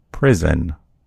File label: "prison", en US; gcompris